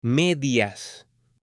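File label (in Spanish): "MEDIAS", male; ROPA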